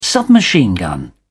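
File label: "submachine-gun", British English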